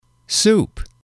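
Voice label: "soup", English, male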